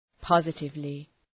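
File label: "positively", English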